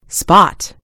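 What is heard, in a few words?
"spot", American English; gcompris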